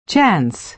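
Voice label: "chance", American English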